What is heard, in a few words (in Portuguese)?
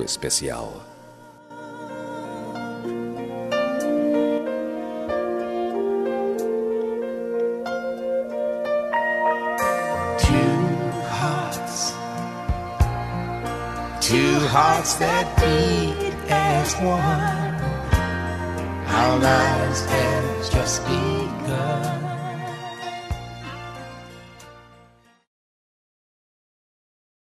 MENSAGEM DE AMIZADE MASC